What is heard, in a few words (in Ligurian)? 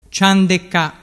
"cian", dialettu